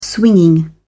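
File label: "swinging", British English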